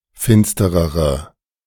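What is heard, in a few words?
"finsterere", German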